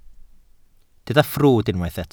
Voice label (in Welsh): "ffrwd" Welsh